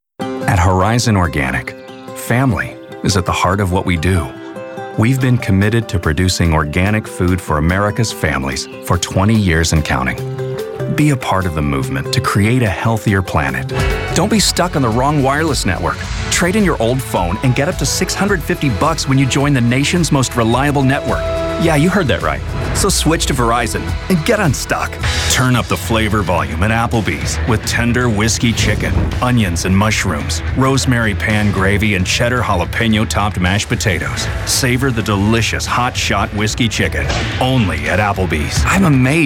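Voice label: male, American English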